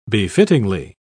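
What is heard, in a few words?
"befittingly", en, uk British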